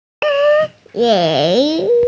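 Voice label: "ren2", Mandarin Chinese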